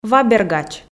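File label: "вабергач", Komi, female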